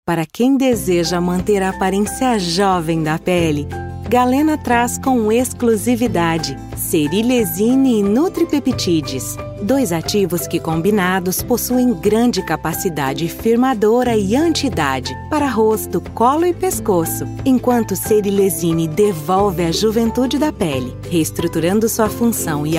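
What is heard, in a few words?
Brazilian Portuguese commercial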